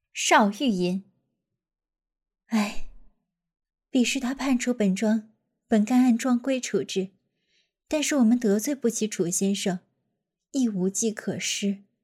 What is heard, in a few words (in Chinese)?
少御音